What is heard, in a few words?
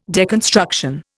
"deconstruction", Indian